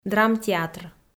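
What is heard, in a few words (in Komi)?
"драмтеатр", Komi, female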